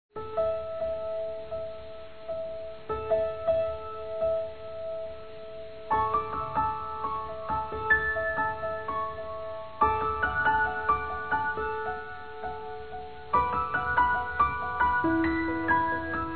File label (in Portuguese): Filha Criança Feminino, Telemensagens Aniversário; Aniversários; Aniv Familiar